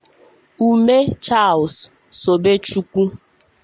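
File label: "Umeh Charles Sobechukwu", Igbo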